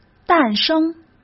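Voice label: "诞生", Mandarin Chinese; chinese flashcards; hsk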